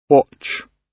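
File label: "watch", British English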